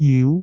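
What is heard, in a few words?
"jiu2", Cantonese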